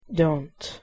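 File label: "dont", English pronunciation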